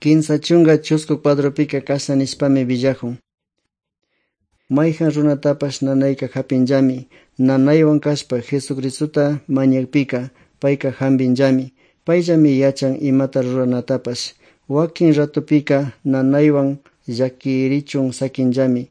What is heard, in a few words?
good news quichua otovalo